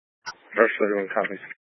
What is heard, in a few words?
Fire Dispatch, scanner